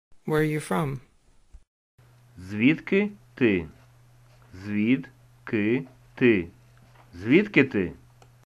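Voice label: Ukrainian, Where are you from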